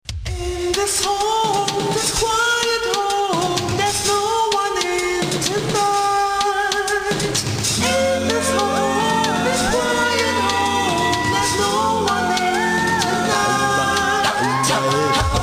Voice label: voice greeting